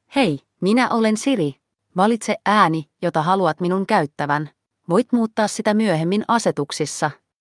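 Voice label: Finnish; fi-FI; iOS Sounds; suvi; Buddy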